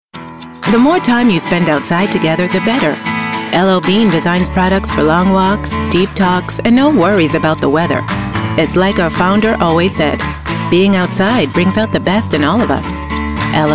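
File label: Commercial, voice-over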